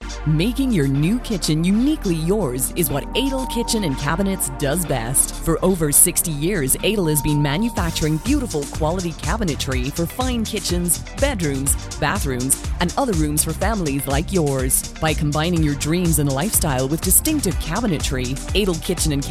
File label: Eng SA